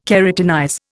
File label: "keratinize", Indian